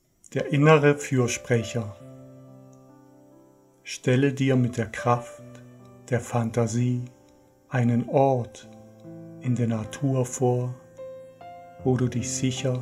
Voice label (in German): Geführte Meditation; Der innere Fürsprecher